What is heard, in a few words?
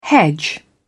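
"hedge", British English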